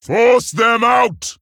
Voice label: Male Orc Attack

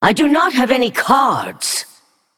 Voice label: Female Naga No Cards

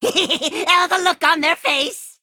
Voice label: Male Imp Play

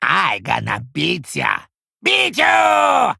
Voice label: Male Kobold Evil Fight Hero Emote Threaten

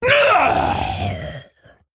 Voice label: Female Worgen Death

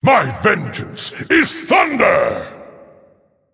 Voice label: Male Titan Forged Emote Threaten

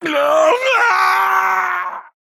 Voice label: Male Dwarf Death

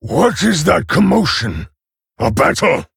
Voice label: Male Orc Ogre

